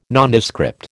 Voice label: "Nondescript", American

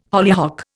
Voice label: "hollyhock", Indian

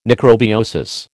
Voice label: "necrobiosis", American

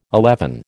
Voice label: "eleven", American

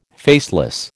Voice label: "faceless", American

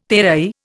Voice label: "terai", Indian

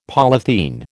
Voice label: "polythene", American

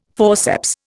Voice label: "forceps", Indian

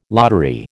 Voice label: "lottery", American

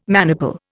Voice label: "maniple", Indian

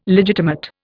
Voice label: "legitimate", Indian

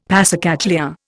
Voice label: "passacaglia", Indian; Dictionary

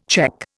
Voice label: "cheque", Indian

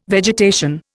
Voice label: "vegetation", Indian

